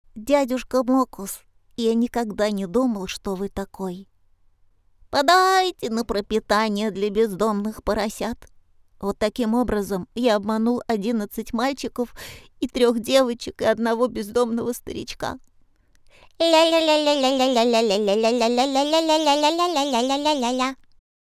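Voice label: piglet voice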